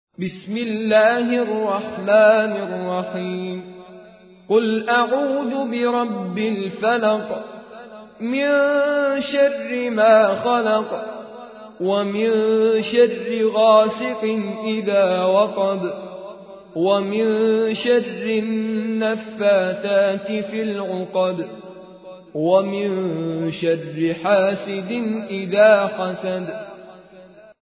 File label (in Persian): ترتیل; مصطفی رعد العزاوی; سوره فلق